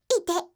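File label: "ite", Japanese; hidan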